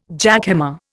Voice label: "jackhammer", Indian